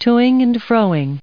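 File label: "-ing", English, us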